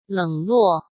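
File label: "冷落", Mandarin Chinese; hsk; chinese-flashcards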